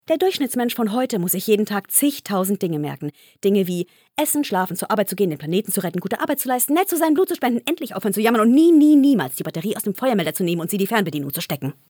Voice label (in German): Dynamisch; Fremdtake